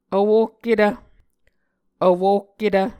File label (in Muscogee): "vwohketv", Muscogee